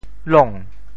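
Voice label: "long2", Teochew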